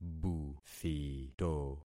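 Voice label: "buthito", concatenated syllables; Affixing experiment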